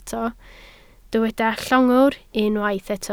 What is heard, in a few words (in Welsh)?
"llongwr" Welsh